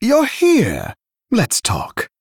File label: Male Dragon General